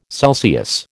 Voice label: "Celsius", American